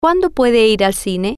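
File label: "Cuando Puede Ir Cine", Spanish, female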